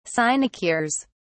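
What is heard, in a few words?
"sinecures", British English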